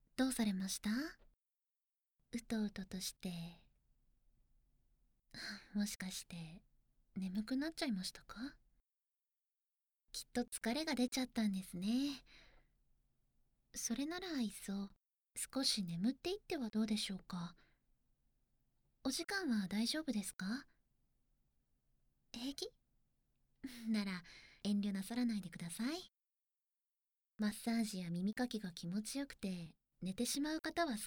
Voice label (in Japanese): 安眠誘導, ASMR